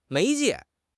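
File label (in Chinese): "媒介", Chinese; HSK6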